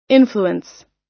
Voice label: "influence", us